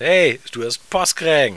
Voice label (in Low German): hey post kreegen, plattdeutsch